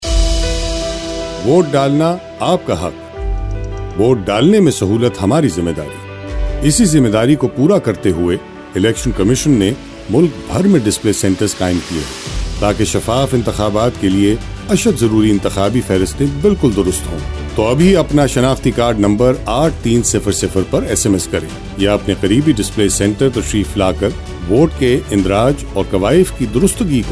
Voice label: radio spot URDU; ECP